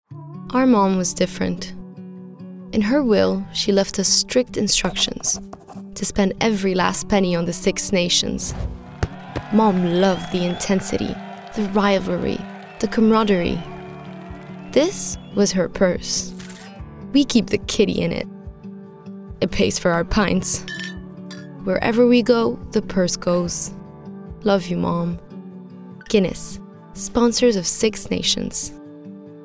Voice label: English Commercial

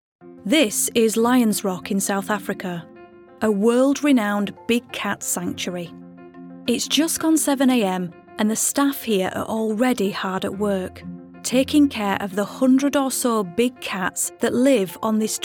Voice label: Documentary

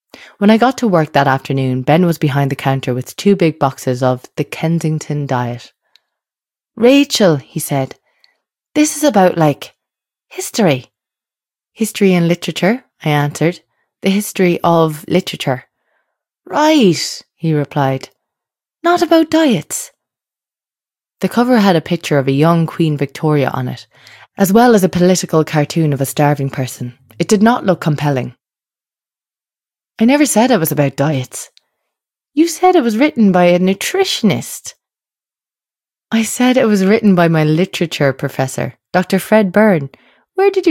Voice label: Audiobook

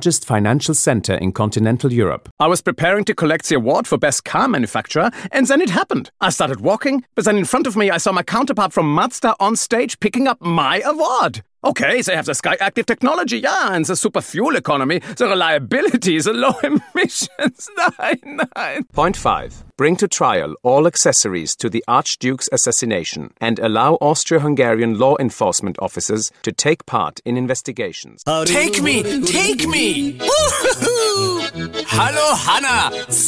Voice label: English with German accent

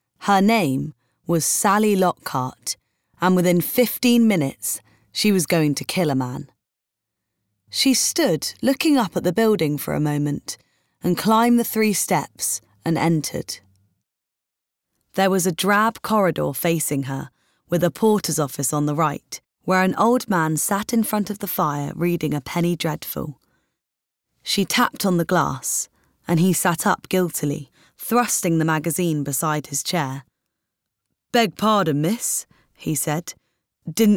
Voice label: story

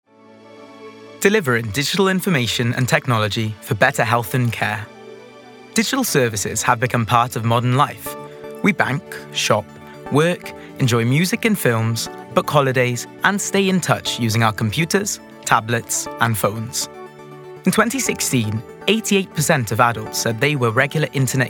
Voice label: corporate

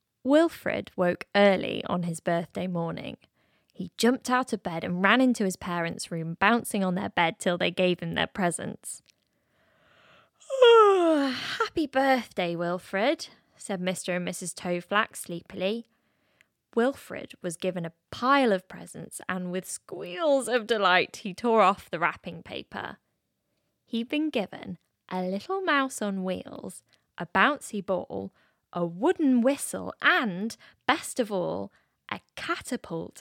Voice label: Drama

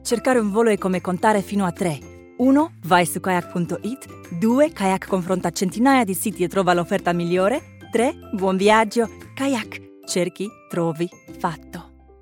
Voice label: Italian

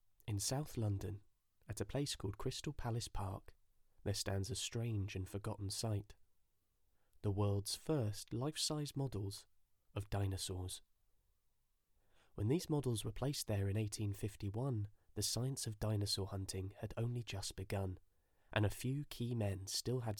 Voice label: Home Studio Read

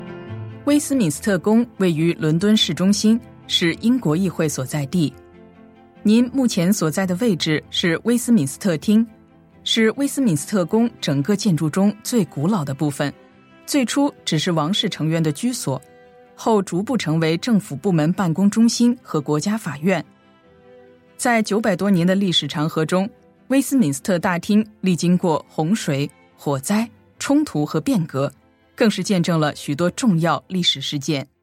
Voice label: Mandarin

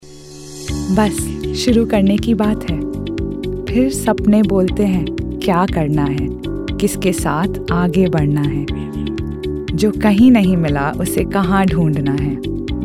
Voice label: HINDI COMMERCIAL VOICE

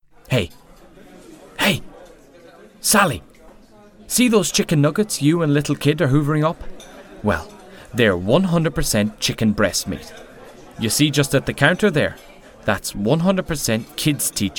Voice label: Irish

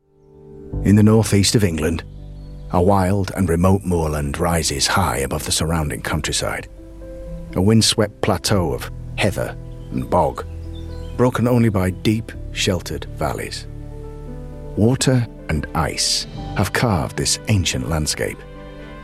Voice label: documentary reel

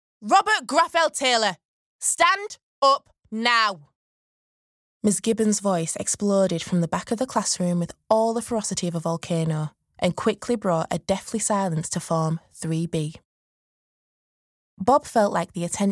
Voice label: Audiobook

